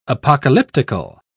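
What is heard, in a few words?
"apocalyptical", British English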